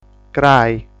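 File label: "Crai", Sardinian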